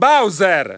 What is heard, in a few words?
Italian Announcer; Bowser; SSBB